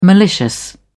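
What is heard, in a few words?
"malicious", English, uk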